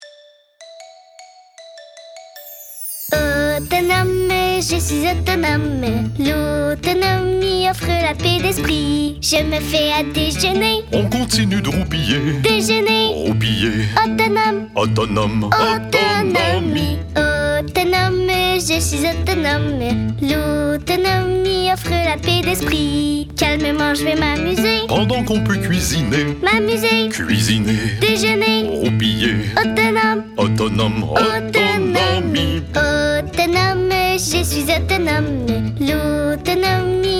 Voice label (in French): Comptine Publicite; PLQ